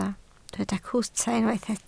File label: "cwta", Welsh